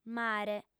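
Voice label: "mare" Sardinian, female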